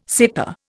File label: "seta", Indian